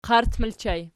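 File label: "qart" ergative plural, Archi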